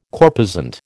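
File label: "corposant", American English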